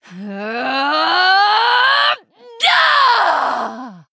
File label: Female Draenei Death